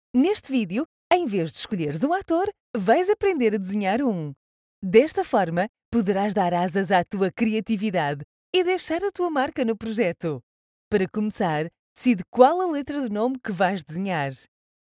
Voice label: Elearning for Teens; Google